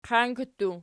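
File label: "qianki" plural, Archi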